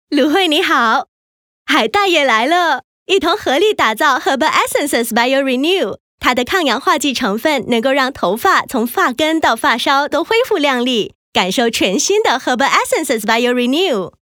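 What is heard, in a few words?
Mandarin Cold VO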